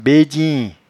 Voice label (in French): "bedyin", patois jura